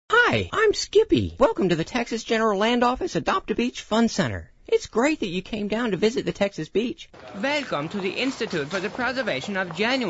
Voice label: character